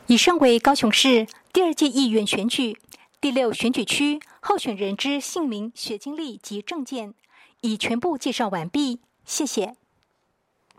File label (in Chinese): 國語, 有聲選舉公報; 第六選區